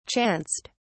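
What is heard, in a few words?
"chanced", British English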